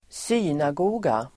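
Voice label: "synagoga", Swedish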